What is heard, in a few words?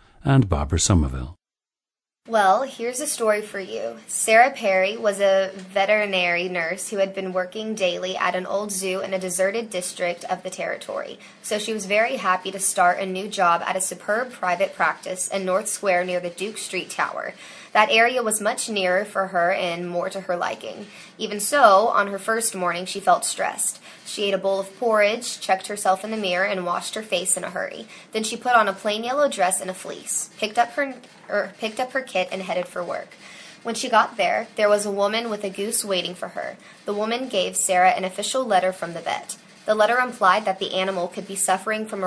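florida, International Dialects of English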